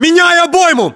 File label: inform reloading generic, battlechatter ru; english; localized english